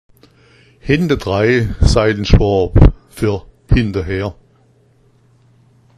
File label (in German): "henta", schwaebisch